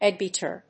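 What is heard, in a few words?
"eggbeater", English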